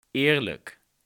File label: "eerlijk", dutch